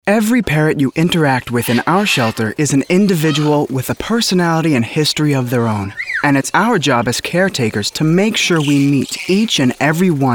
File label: Narration